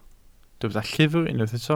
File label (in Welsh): "llyfr" Welsh